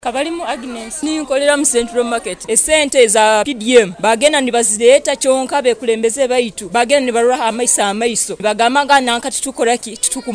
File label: Vox pop vendors; long-promised central market construction ahead of museveni visit